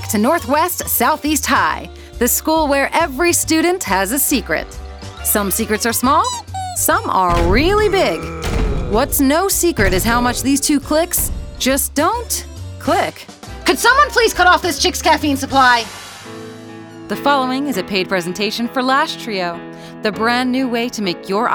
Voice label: narration